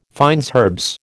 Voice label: "fines herbes", American